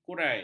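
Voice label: "kurai", Malay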